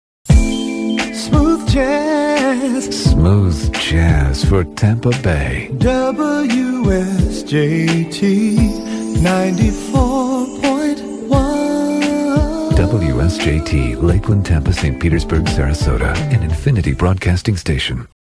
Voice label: Station ID; WSJT